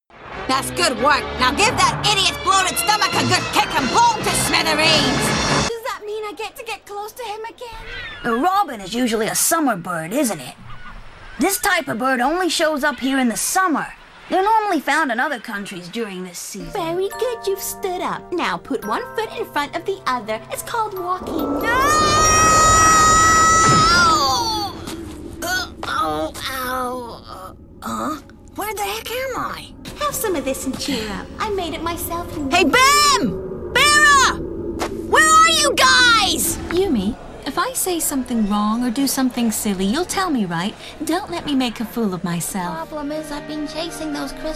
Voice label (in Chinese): 英語女聲動漫配音